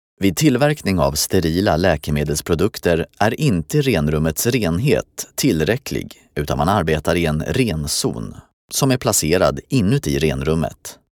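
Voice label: Swedish elearning, male; svensk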